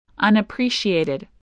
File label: "unappreciated", English spelling voice